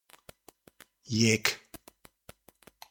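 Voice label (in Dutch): Jek, kerkraads dialect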